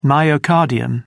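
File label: "myocardium", British English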